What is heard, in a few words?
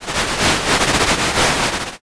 whisper